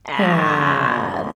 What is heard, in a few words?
"AAH UGLY", SHOUTS; Best Service; Hyper Dance